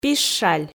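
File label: "пищаль", Komi, female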